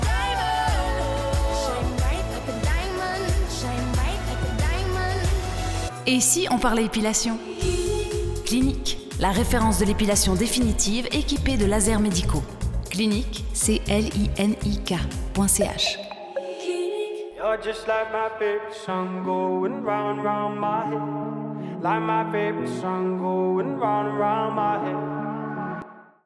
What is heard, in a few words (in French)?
AUDIO RADIO ENTREPRISE; DEMO clinik